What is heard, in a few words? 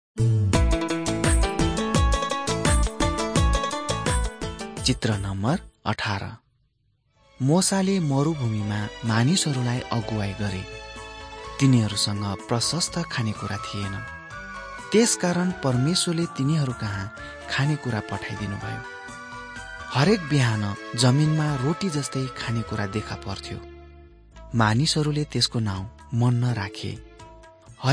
mighty men of god nepali